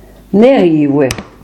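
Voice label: "Nèrivouè" Franco-Provençal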